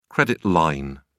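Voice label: "credit line", British English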